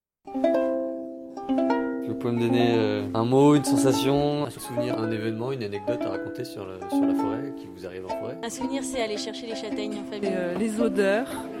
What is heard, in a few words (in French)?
Micro Trottoir la foret Musik